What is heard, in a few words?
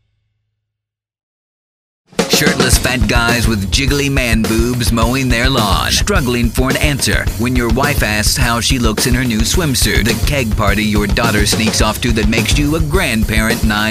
Memorial Day Beginning of Summer Promos